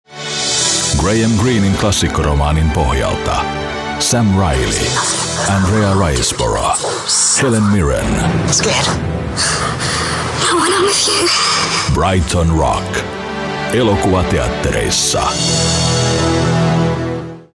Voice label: fi movie trailer